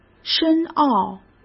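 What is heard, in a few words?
"深奥", Mandarin Chinese; chinese flashcards; hsk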